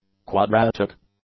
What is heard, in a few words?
"quadratic", English; adjectives